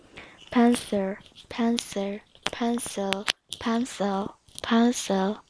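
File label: "pencil", spoken word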